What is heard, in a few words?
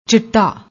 "chitta", Punjabi